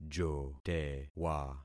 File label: "jotewa", concat syllables; Affixing experiment